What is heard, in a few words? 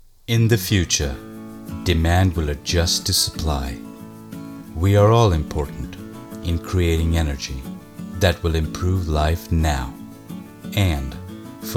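Corporate English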